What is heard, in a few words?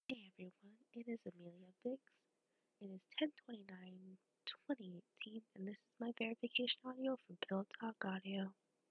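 Verification, Female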